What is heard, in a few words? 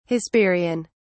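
"hesperian", English, British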